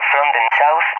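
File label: "from the S", English, SPAIN; DCS; Allied Flight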